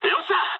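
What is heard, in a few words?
Japanese bomb success mood high; War Thunder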